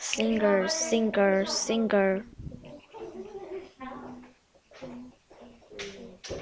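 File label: "singer", spoken word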